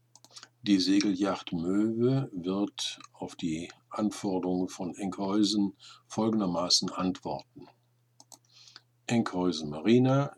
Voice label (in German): Liegeplatz fuer Moeve Moeve macht Angaben, Seefunk; routine; short range certificate sprechfunkbeispiele nach imo vorgabe